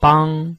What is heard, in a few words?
"bang", Chinese